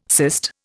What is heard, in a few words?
"cyst", Indian